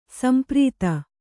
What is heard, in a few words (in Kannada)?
"ಸಂಪ್ರೀತ", Kannada; samprīta; satisfied well; satiated completely.